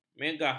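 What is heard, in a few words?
"megah", Malay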